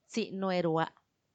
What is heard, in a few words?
"tsinuerua", thirtytwo, macuiltianguis; treinta y dos